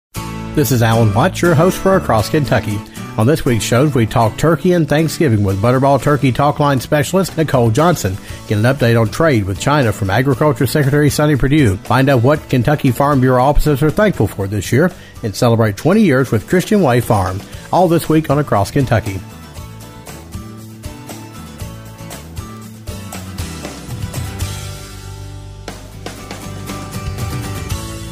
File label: promo; Across Kentucky